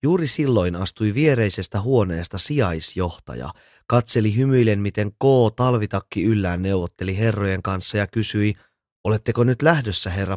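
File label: Finnish Audiobook Male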